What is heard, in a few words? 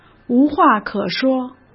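"无话可说", Mandarin Chinese; hsk; chinese-flashcards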